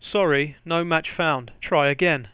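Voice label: sorry no match found, English, Voice Sounds; Samsung SGH-i310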